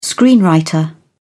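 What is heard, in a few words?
"screenwriter", British English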